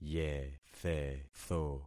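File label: "yethetho", concatenated syllables; Affixing experiment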